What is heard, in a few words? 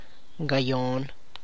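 sixty, Tlacochahuaya Zapotec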